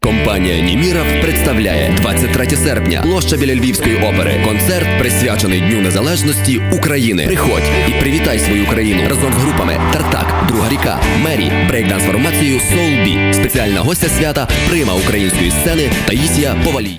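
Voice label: ukrainian male voice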